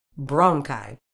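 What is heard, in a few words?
"bronchi", British English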